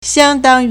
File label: "xiang1dang1", Chinese